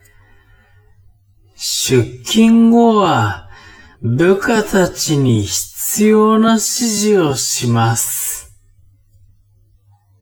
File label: man